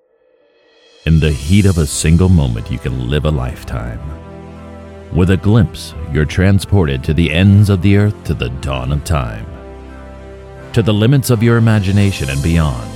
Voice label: Promo